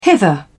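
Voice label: "hither", British English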